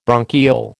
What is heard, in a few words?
"bronchiole", American